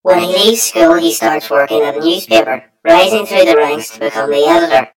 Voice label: story voiceover; yumpod